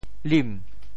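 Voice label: "lim2", Teochew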